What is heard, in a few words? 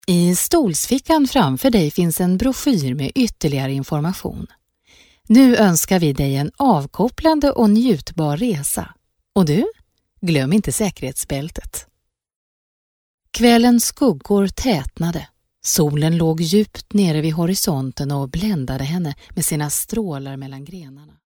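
Swedish, Female, narration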